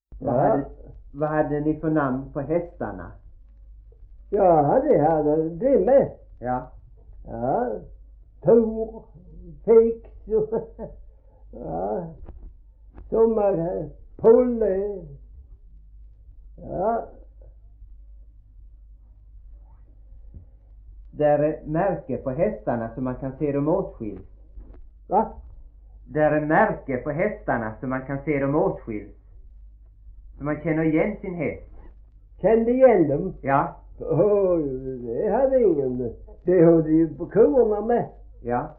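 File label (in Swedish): Gråmanstorp Skåne, dialekter; Folkminnen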